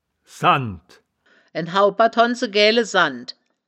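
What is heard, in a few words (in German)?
"sannd", wittlicher woerterbuch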